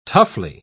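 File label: "toughly", English, British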